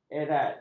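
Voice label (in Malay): "erat", Malay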